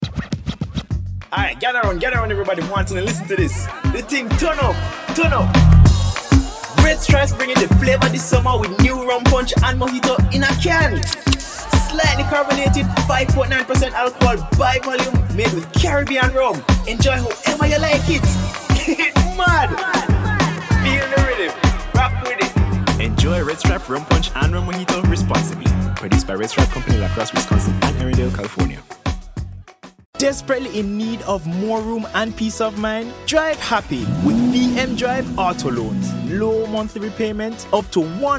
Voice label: male english usa and jamaican patois jamaican patois commercial compilation